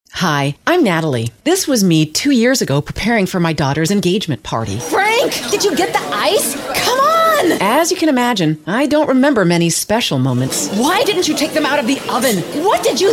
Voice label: voiceover

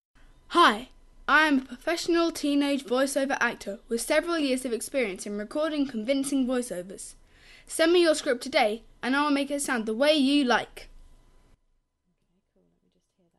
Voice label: voice over artist

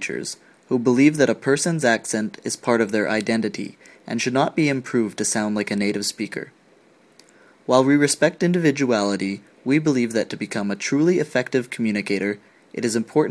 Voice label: english pronunciation

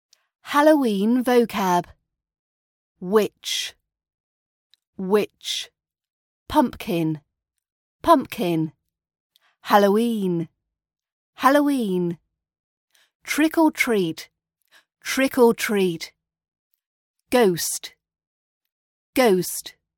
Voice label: Halloween vocab RP British accent

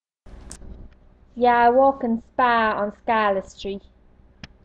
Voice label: drogheda accent; where i work

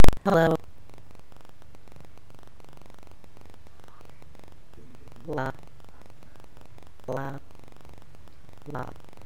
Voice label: mom talking

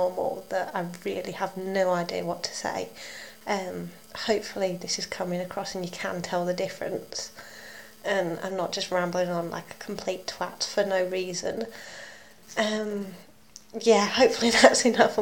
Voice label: not posh voice

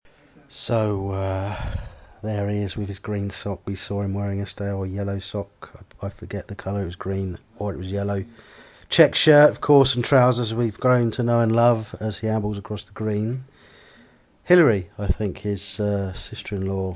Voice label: golf commentary

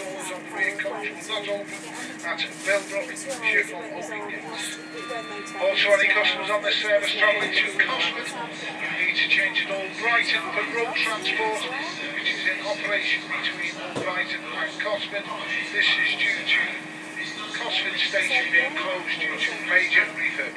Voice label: train announcement

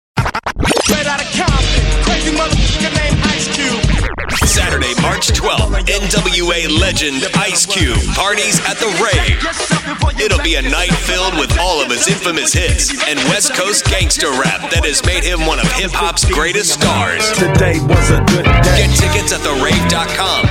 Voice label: concert commercial; the rave milwaukee wisconsin; tim burt media

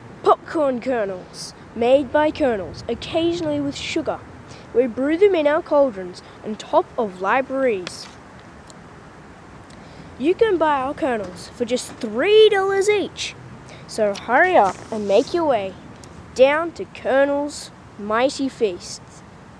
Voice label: spelling jingle

